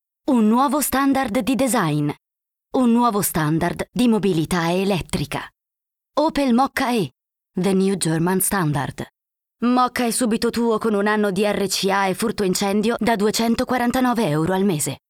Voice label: DUBBING, ITALIAN FEMALE